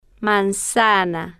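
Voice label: "manzana", Spanish, female, slow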